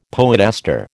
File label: "poetaster", American